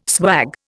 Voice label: "swag", Indian

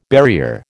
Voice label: "barrier", American

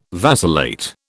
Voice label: "vacillate", British

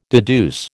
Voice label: "deduce", American

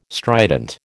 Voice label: "strident", American

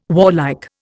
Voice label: "warlike", Indian

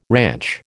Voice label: "ranch", American

